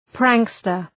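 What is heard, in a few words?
"prankster", English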